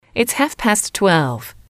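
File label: it's half past twelve, female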